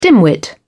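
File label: "dimwit", British English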